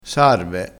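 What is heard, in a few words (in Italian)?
"sarve", dialetto crespinese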